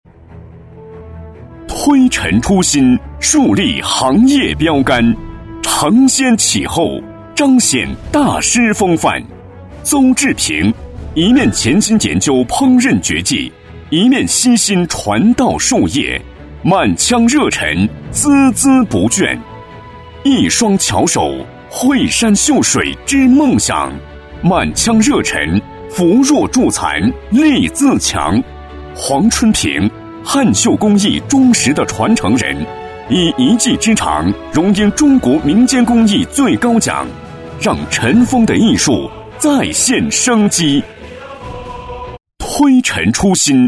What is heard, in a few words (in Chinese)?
男-专题-颁奖豪迈激情